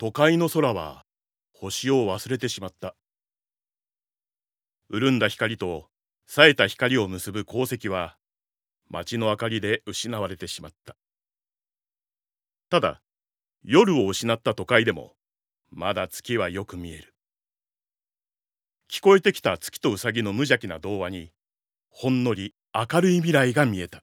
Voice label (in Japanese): ナレーション